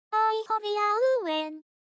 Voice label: english